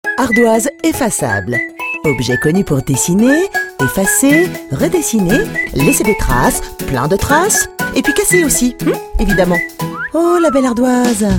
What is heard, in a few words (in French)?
Pub TV NATIONALE jouée; Maped Flex